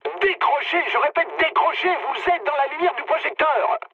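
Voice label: searchlite, mood medium, French; War Thunder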